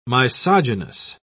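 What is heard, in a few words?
"misogynous", British English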